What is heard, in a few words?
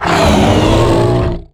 anger, strogg; Quake 4 Alpha; strogg tik